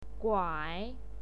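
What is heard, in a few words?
"guai", Mandarin Chinese pinyin, third tone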